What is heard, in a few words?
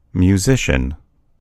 "musician", en US; gcompris